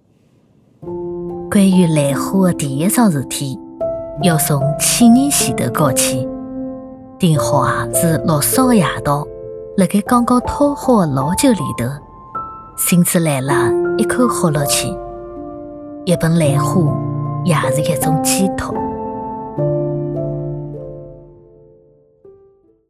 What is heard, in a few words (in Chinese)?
宁波话模仿旁白